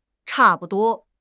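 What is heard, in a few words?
"cha4 bu5 duo1", Mandarin Chinese; HSK; HSK4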